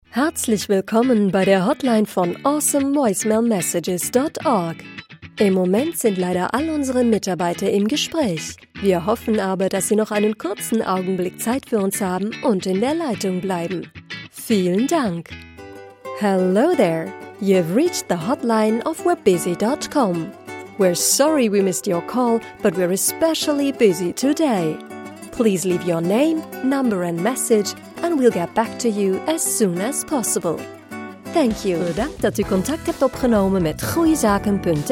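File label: voicemail messages German English Dutch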